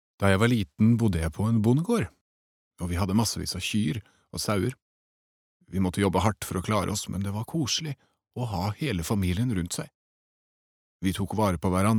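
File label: Norwegian dub man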